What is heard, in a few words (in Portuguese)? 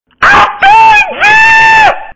Namorada Histerica